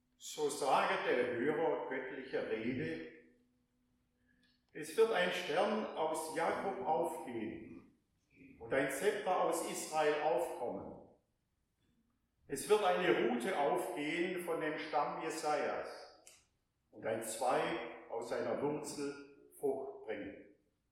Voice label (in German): Chor im Advent Ansprache